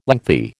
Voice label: "lengthy", American